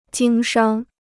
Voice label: "经商", Chinese